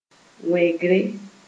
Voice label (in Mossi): "wẽgre", Moore